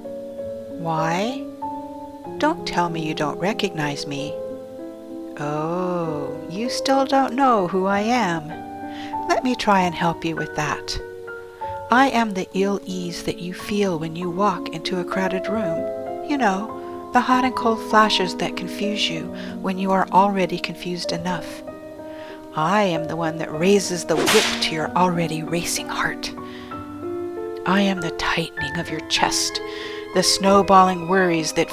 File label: commercial